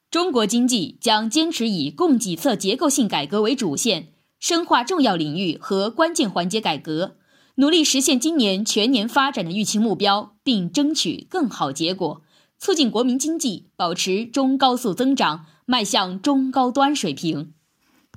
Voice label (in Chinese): 新闻类